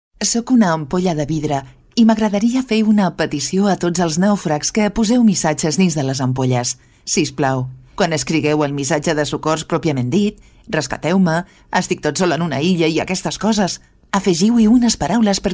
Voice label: Catalan